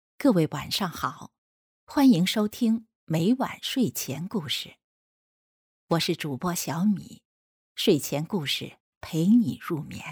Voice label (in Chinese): 情感电台知性